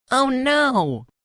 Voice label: OH NO! - Sound Effect

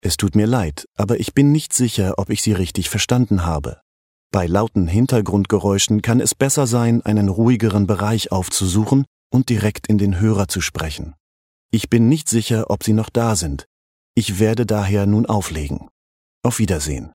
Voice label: M German Error

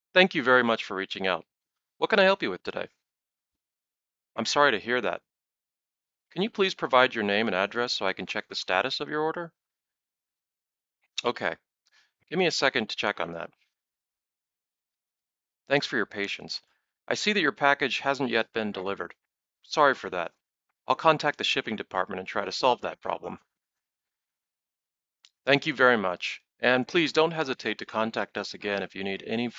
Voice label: bg voice after

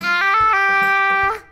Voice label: a in autotune; gura

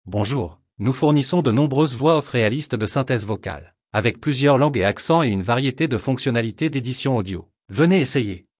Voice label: French

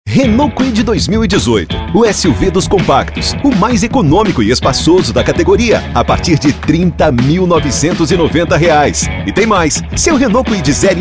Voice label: Spot; Renovel

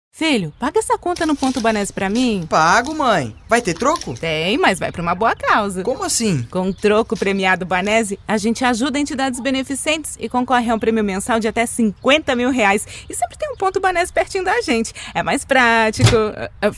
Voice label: Spot; BANESE

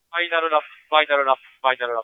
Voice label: race voice; toyota; superformula

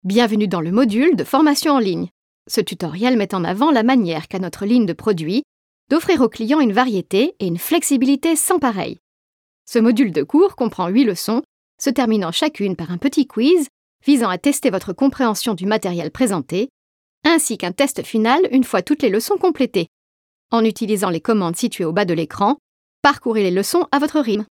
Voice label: F EU French eLearning

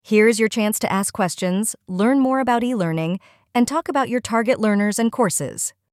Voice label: e-learning questions

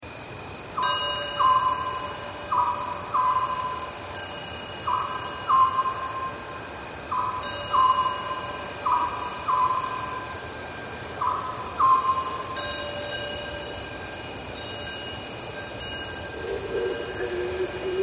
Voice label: Korean

